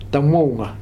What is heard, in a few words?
"Tamouga", futuna aniwa